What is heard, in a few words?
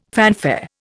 "fanfare", Indian